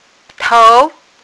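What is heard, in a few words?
"tou2", Mandarin Chinese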